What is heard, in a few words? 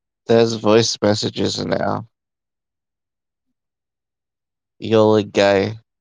voice message